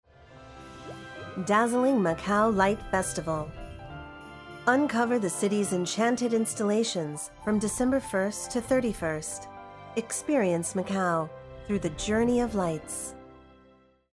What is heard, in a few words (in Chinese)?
英語女聲; LightFes